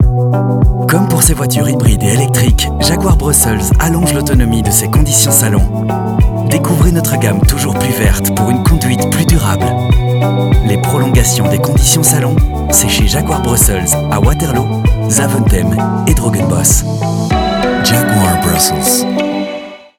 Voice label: FR MIXRADIO; JAGUAR